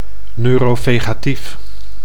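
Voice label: "neurovegatief", Dutch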